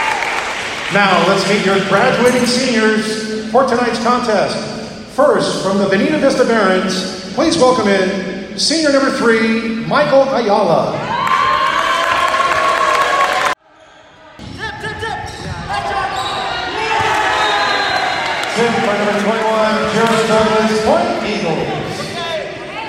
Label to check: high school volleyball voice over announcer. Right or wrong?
Right